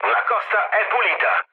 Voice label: chat, speech it; War Thunder

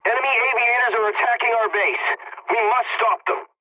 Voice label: pilot base under attack, english; War Thunder

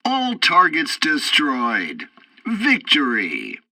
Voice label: assault rockets, english; War Thunder

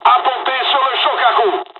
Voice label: landing, dialogs french; War Thunder

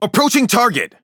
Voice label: Speech Pilots Fighter Engage, EN; World Of Warships

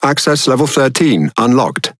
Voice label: Pirate Speech Access Level; World Of Warships

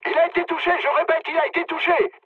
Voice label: aircraft destroyed mood med, speech fr; War Thunder

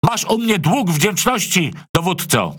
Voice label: Speech Quick Commands Thank You Ship, PL; World Of Warships

